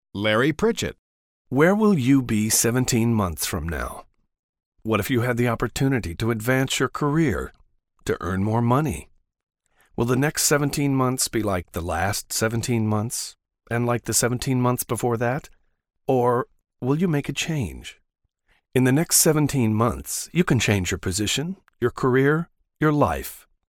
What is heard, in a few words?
smooth; Soothing